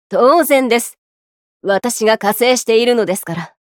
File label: Voice victory